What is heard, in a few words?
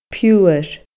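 "piuthar", Scottish Gaelic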